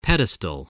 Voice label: "pedestal", English, voice